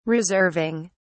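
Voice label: "reserving", British English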